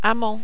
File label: "amant", French